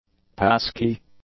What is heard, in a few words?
"pass key", English; nouns